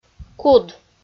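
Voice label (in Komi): "куд", Komi-Zyrian, female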